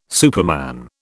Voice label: "superman", British

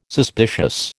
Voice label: "suspicious", American

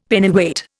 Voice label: "Pennyweight", Indian; Dictionary

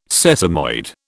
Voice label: "sesamoid", British

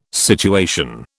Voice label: "situation", British

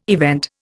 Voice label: "event", Indian

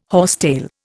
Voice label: "horsetail", Indian

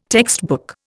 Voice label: "textbook", Indian

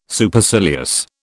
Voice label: "Supercilious", British

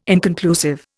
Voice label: "inconclusive", Indian

